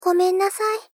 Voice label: Polite Failure "Gomen nasai"; Rei Yumesaki

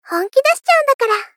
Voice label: Gentle Critical "Honki dashi chaun dakara"; Rei Yumesaki

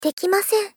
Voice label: Polite Refuse "Deki masen"

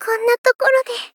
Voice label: Polite Dying Konna tokorode; Rei Yumesaki

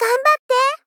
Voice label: Gentle Cheering "Ganbatte"

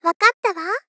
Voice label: Gentle Accept "Wakatta wa"

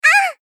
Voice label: "Ahh", Gentle, Damage

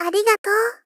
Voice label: Gentle Thanks "Arigato"

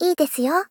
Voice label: Polite Accept "Ii desuyo"

